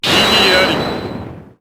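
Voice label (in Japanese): "Igiari", Japanese; Blackquill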